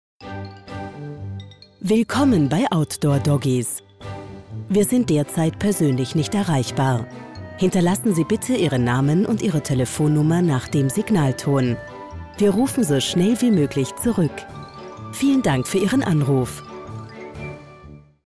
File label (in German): mobilbox ansagen; Professionelle Mailboxansage